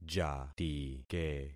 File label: "jatike", concat syllables; Affixing experiment